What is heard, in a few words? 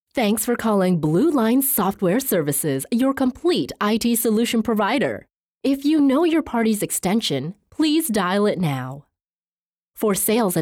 IVR VO; voice-over